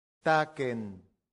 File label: "tacent", latin; santillana